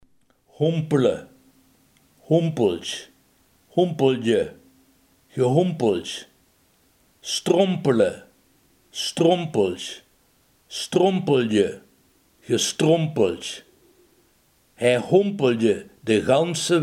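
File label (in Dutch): hompelen, Limburgse gesproken woordenboeken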